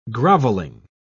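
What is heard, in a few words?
"grovelling", British English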